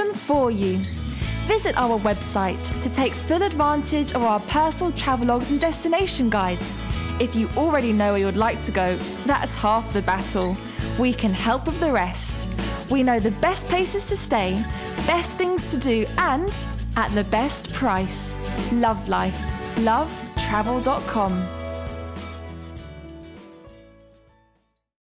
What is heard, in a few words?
commercial holiday